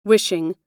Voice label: wishing, us